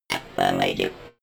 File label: robot voice affirmative fx